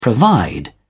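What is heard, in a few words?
"provide", British English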